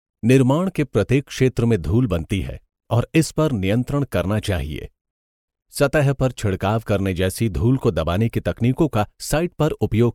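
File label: Hindi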